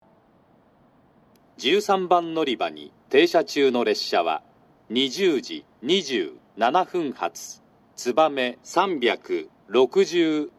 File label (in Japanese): 13番のりば停車中案内放送, 新幹線ホーム; 筑後船小屋; 13番のりば停車中案内放送（つばめ365号 熊本行き）; 退避列車と朝の6時始発で流れます。